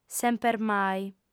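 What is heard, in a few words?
"sempermai", Sardinian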